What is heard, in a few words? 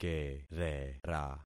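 "kerera", concatenated syllables; Affixing experiment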